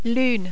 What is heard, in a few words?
"lune", French